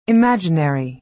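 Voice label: "imaginary", American English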